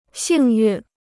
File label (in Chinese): "幸运", Chinese